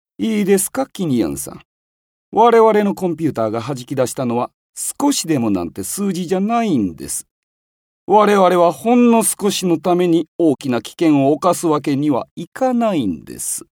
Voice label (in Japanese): 尊大な研究者